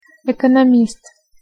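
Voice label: "ekonomist", Russian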